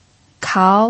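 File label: "kao" second tone, pinyin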